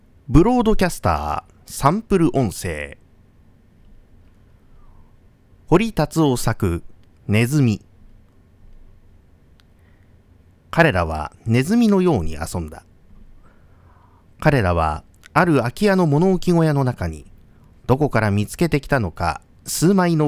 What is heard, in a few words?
broadcaster